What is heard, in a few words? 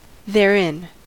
"therein", US; pronunciations